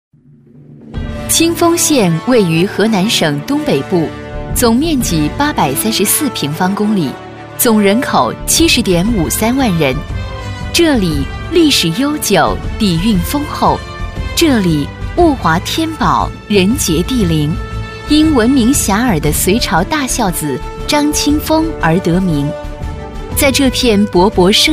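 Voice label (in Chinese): 女国大音-宣传-样音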